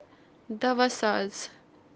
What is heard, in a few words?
"dawasaaz" compounder, kashmiri